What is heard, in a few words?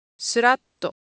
Japanese, Ideo Phones; Shape